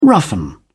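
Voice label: "roughen", British English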